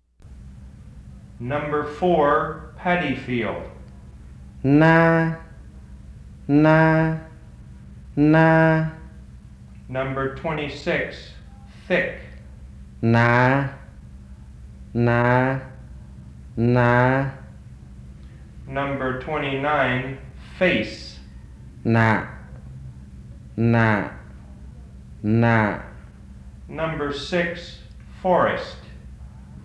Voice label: Black Tai

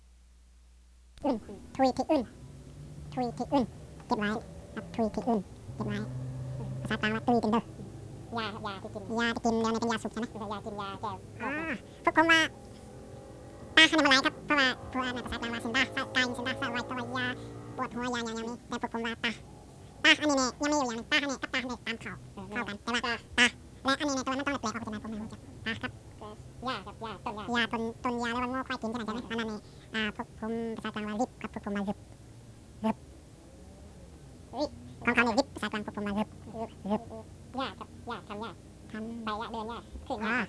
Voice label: Wa Word list

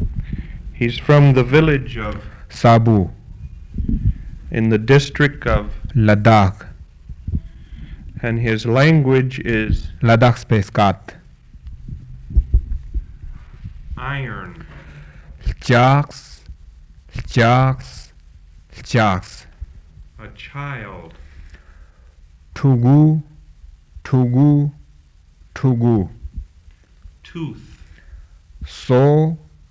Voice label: Central Ladakhi

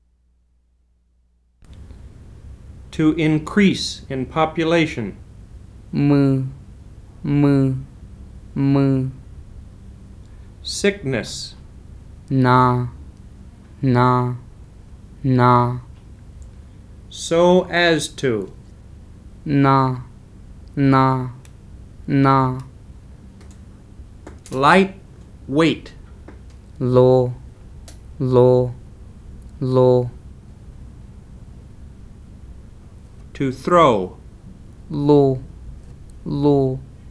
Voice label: Lisu

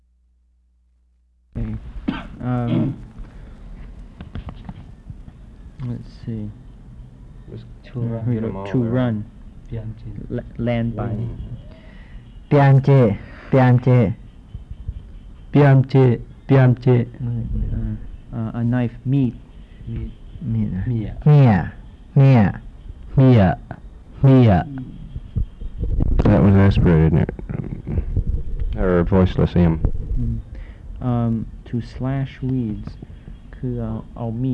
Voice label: Phunoi

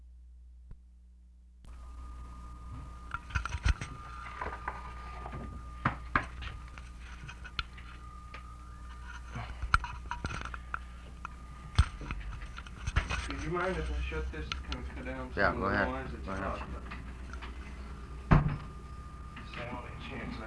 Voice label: Cheyenne

